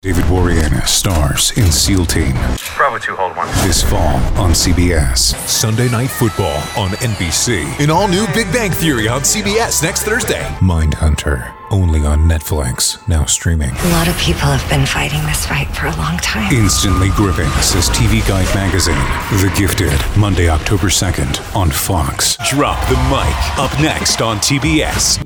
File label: Modern Trailer Voice Network Promos